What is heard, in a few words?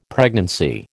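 "pregnancy", American English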